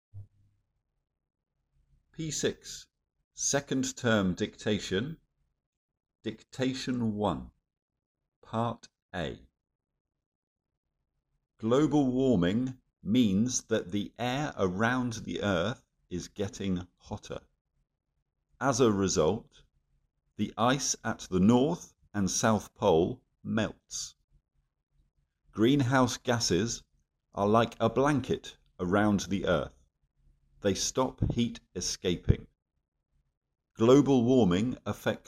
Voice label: Dictation, Forests provide clean air, food, and a home for many animals. However, forests are cleared to make way for roads, towns an